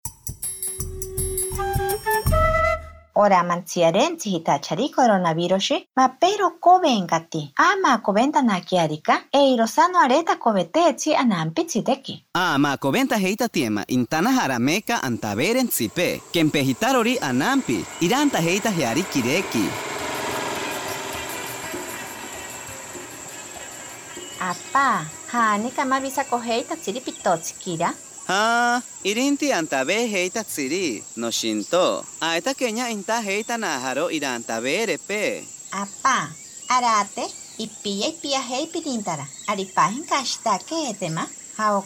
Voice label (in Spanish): ashaninka 2 voces, spot de radio; cuidarnos inicio actividades; precauciones al salir de mi comunidad